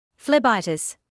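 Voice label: "phlebitis" English, British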